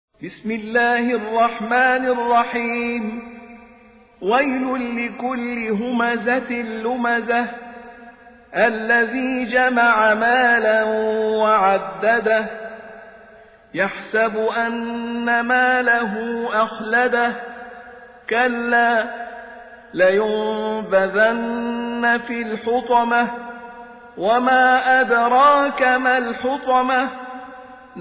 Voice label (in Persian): ترتیل; سوره همزه